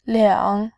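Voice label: "liang3", Mandarin Chinese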